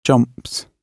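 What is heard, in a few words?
"jumps", British English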